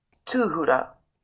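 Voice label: "tūhura", Māori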